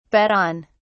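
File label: "bet on", British English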